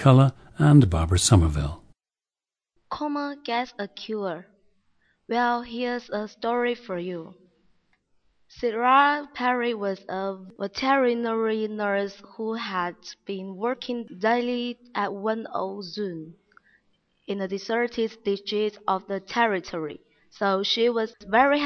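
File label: guangxi, International Dialects of English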